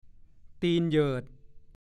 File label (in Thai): "ตีนเหยิด", ภาษาโคราช; ดูคำว่า ตีปุ้ก